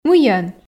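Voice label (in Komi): "мыйӧн", Komi, female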